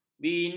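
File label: "bini", Malay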